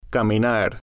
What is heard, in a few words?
"caminar", spanish